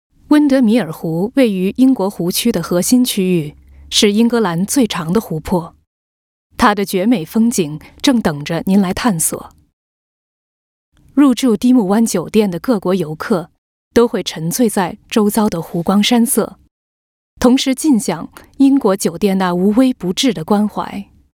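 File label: Chinese, Documentary; GoLocalise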